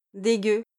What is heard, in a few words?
"dégueu", French